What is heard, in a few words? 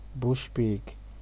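"bush pig", Nigerian Pidgin, male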